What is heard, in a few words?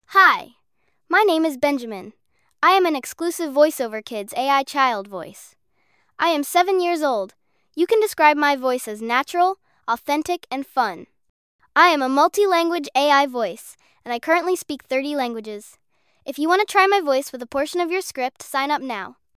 boy7; voiceoverkids